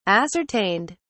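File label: "ascertained", British English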